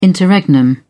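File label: "interregnum", British English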